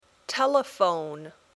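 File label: "telephone", English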